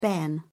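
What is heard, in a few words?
"bairn", British English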